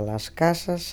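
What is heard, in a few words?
"las casas", Spanish; Galicien: las casas [laˈkasəs]; vokale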